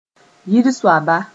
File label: "yir soaba", Mooré